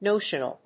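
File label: "notional", English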